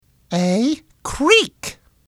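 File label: "creek", English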